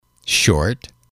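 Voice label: "short", English, male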